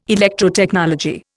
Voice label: "Electrotechnology", Indian